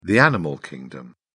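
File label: "animal kingdom", British English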